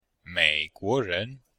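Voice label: "mei3guo2ren2", Chinese; Cours de Chinois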